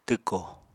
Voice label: "twko", Apatani; Material Culture

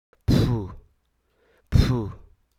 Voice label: "cliff", hruso

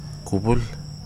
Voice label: "cloud", Wahgi; lae unitech

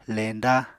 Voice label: "leñda", Apatani; Material Culture

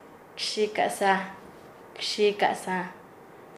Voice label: "broma", Nasa Yuwe; paez

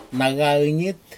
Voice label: fish w thick lips, Aneityum

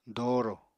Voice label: "doorò", Apatani

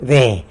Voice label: "de", tlacolula; dust, powder

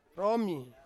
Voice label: "romi" garden, Ansus

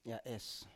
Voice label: "ya7es", Biak

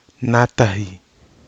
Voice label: "nátahi", Nafe (Kwamera)

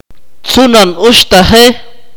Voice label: "younger brother", Akateko

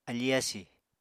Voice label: "afí-yasì", apatani

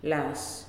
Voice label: "Lime", Nalik

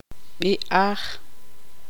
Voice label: tzutujil

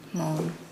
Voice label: Nukuoro; be dismantled

